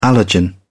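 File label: "allergen", British English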